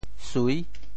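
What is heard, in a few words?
"sui6", Teochew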